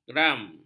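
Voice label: "gram", Malay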